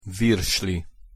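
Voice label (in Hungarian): "virsli", Hungarian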